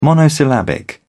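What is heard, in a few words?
"monosyllabic", British English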